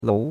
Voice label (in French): "lou2", chinois; Cours-de-Chinois